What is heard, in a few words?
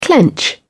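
"clench", British English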